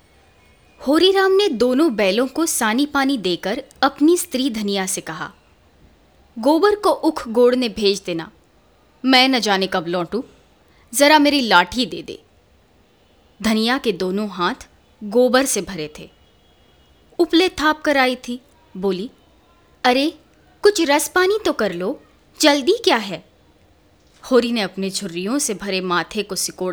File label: Hindi Female